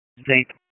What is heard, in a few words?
PS TAC 17, P25 recordings; wake p25